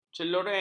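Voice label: "celoreng", Malay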